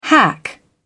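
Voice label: "hack", British English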